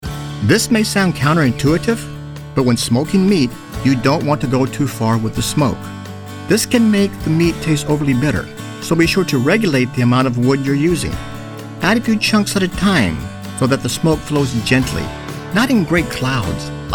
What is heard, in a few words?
Narration